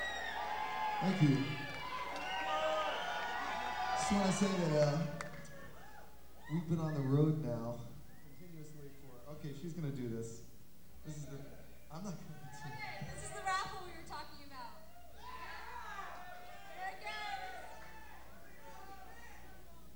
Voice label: Banter; Somerville, MA; Somerville Theater